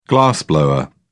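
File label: "glassblower", British English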